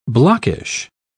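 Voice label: "blockish", British English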